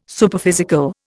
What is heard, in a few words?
"Superphysical", Indian